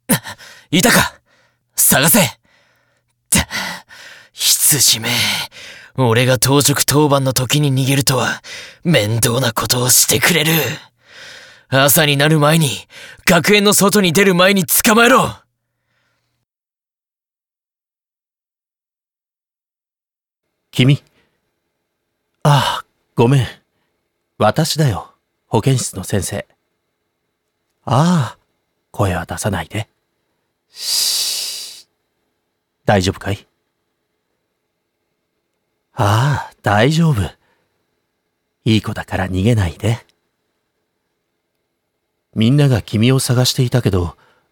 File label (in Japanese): （BGMなし）, ASMR; 生贄羊の逃走劇